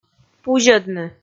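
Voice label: "пузьӧдны", Komi, female; kpv audio dict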